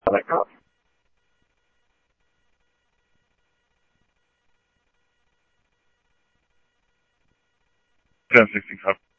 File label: Tempe Police, scanner audio; TEMPE PD A04; SimulcastF-Tempe